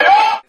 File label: "yowh", voice; ooh ahh